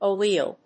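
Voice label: "a wheel", English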